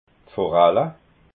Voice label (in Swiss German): "foralla", alsace